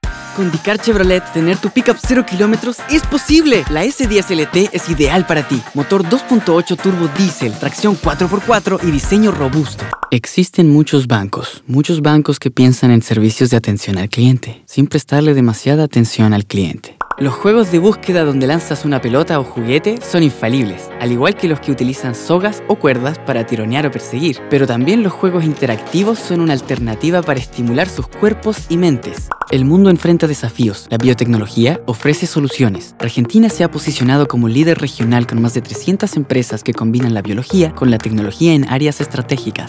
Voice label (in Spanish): neutro, espanol neutro